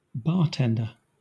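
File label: "bartender", British English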